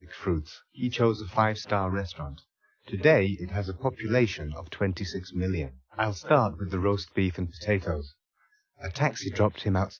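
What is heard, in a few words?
male speech; SiSEC10; underdetermined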